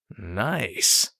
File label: mutter; Escape from Tarkov